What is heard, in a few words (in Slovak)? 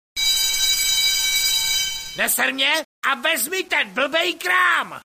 sms hlásky cz